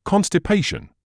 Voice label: "constipation", medical english uk